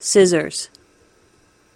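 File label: "scissors" English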